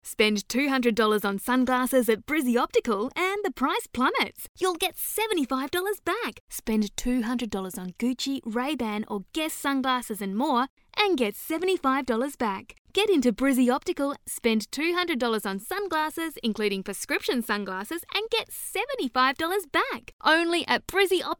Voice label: Hard Sell

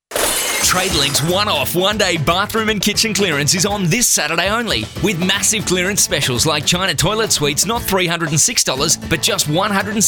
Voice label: Excited

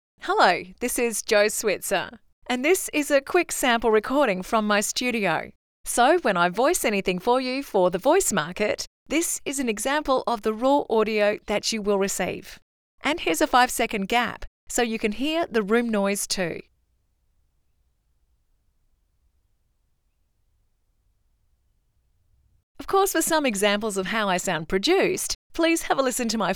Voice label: STUDIO SOUND CHECK

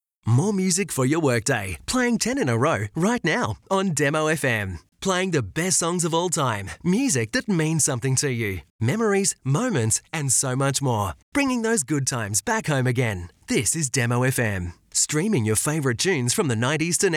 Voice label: Radio Imaging

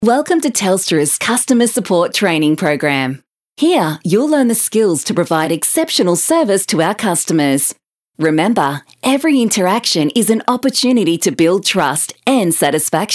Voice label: eLearning

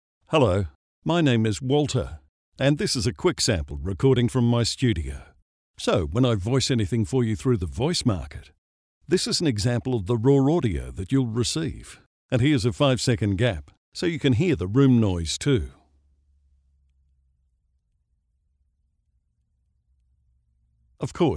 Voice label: Studio Sound Check

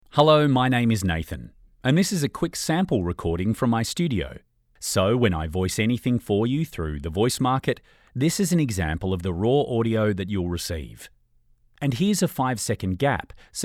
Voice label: Studio Sound Check

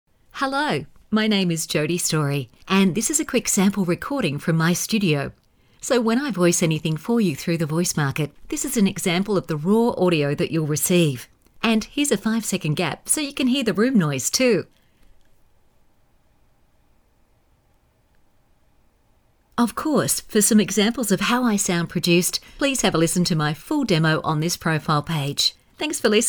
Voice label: Studio Sound Check

